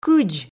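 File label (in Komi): "кыдз", Komi, female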